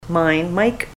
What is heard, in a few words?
mine, ontario